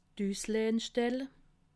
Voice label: "usleihstell", alsace

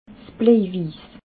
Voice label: "pluvis", alsace

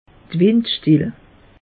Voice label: "windstille", alsace; meteo

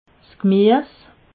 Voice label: "gmies", alsace

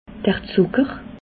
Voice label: "zucker", alsace

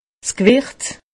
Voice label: "gewicht", alsace; medecine

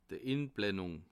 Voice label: "inblendung", alsace

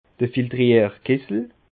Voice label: "filtrierkessel", alsace

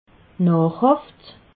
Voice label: "nahrhaft", alsace

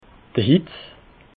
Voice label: "hitz", alsace

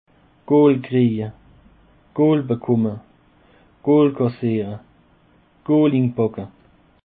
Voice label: "goolkreje", alsace

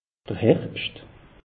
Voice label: "herbscht", alsace; meteo

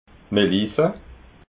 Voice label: "melissa", alsace

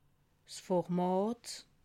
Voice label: "format", alsace

